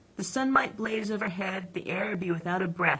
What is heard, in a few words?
LPC Burg Resynthesis